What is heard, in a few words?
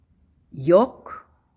"Юк", Tatar